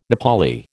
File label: "Nepali", American